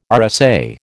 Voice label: "RSA", American

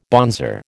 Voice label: "bonzer", American

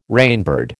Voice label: "rainbird", American

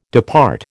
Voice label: "depart", American

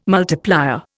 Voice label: "multiplier", Indian

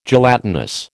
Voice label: "gelatinous", American

